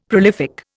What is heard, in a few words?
"prolific", Indian; Dictionary